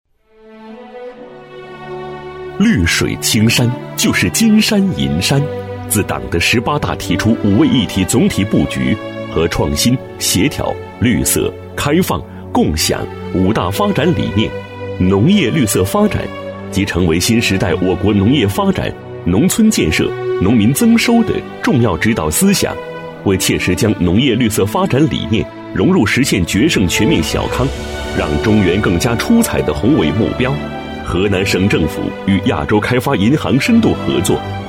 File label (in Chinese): 男 专题 大气; 农业